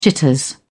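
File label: "jitters", British English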